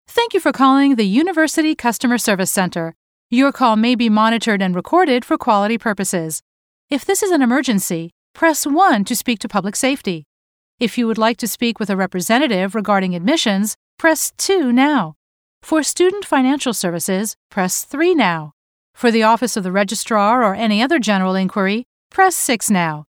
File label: us english ivr